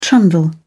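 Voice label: "trundle", British English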